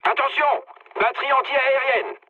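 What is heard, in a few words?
French damaged aa mood low; War Thunder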